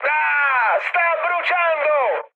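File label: Italian on fire mood high, speech; War Thunder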